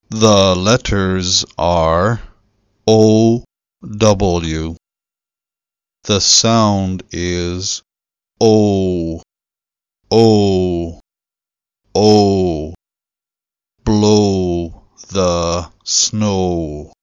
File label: ow as in blow